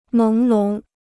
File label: "朦胧", Chinese